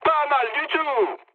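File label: French trick mood high; War Thunder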